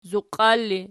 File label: "zuqial", Archi